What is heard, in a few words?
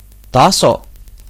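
level, Basque; gcompris-data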